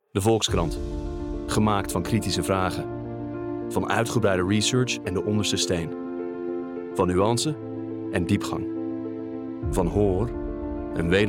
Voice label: Dutch

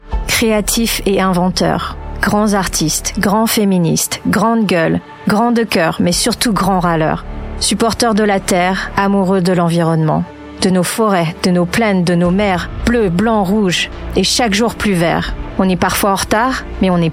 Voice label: French

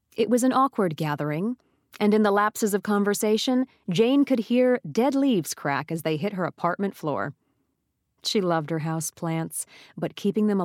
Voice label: Audiobook

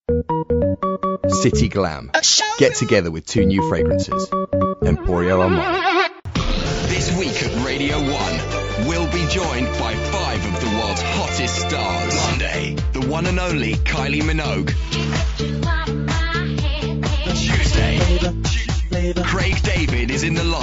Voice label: Commercials

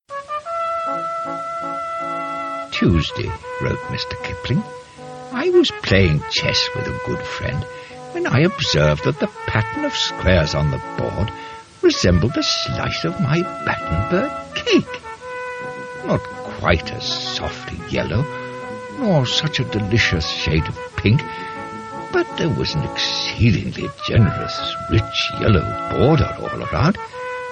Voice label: RP

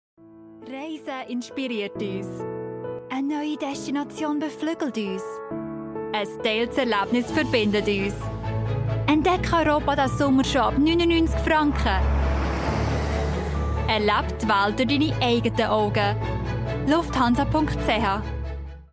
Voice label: Swiss German

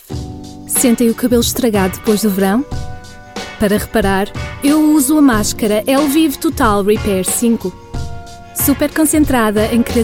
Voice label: Portuguese

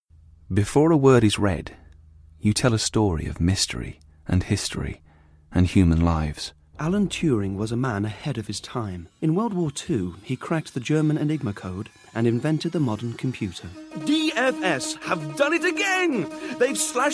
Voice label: Mix, regional accents

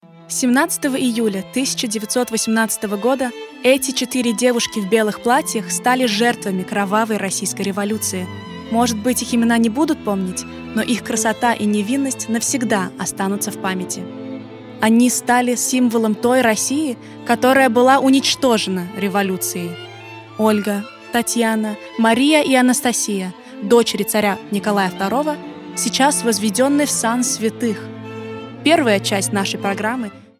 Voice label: Russian

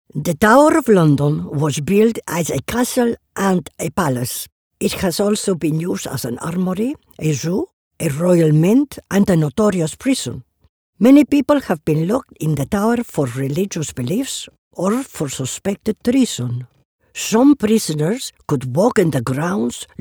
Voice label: English spanish accent

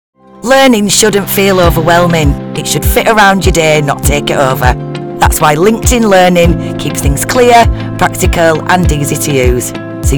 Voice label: CORPORATE, regional accents

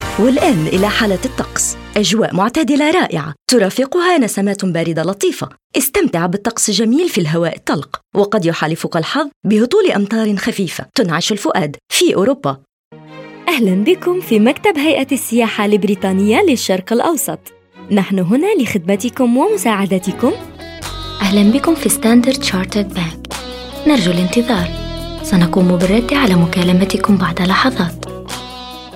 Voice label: Arabic

